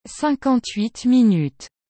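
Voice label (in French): "cinquante huit minutes", French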